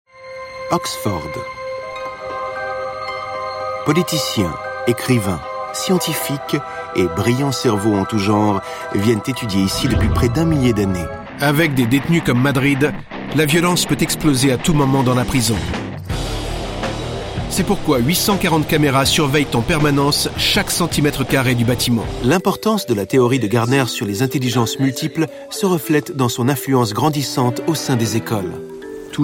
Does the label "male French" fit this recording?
Yes